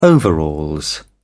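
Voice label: "overalls", British English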